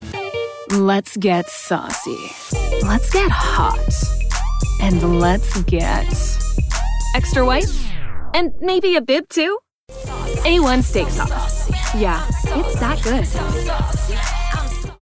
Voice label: Television Spots, Fun, Sexy Steak Sauce Read, female voice